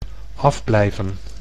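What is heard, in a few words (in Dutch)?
"afblijven", Dutch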